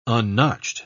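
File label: "unnotched", British English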